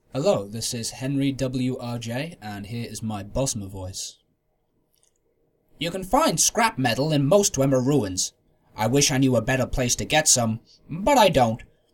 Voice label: wood elf, wood elf voice auditions

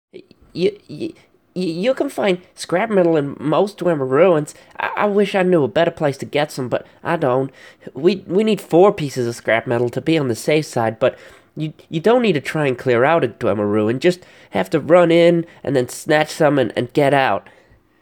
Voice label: bosmer, wood elf voice

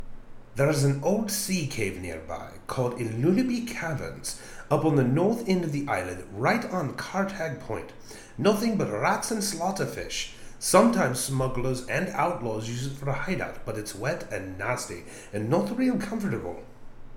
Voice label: breton keeper, breton voice auditions

